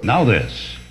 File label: "now this", male